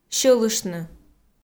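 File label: "сьӧлыштны", Komi, female; kpv audio dict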